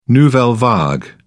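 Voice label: "nouvelle vague", British English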